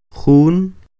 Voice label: "Jun", Quiché; uno; one; INALI; UTexas; Números